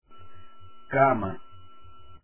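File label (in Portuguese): "cama", Portuguese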